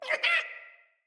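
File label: Idle Corrupted Sprouts, en; Dialog; Battle Barks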